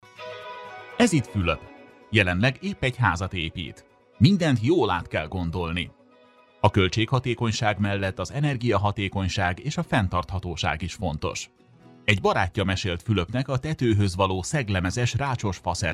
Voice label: hungarian explainer videos